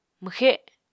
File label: "mwxe", Apatani